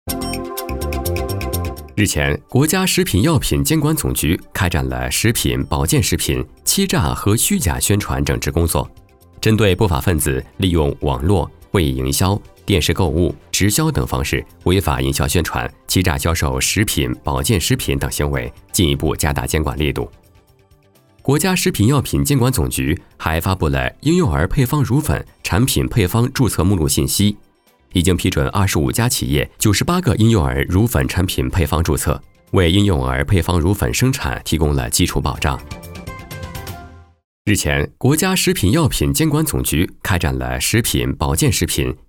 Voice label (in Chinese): 男 新闻 磁性浑厚 药品法规